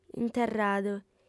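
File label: "interradu", Sardinian, female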